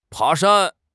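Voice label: "pa2 shan1", Chinese; HSK; HSK3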